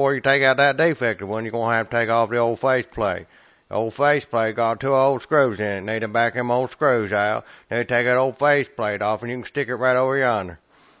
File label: Redneck light switch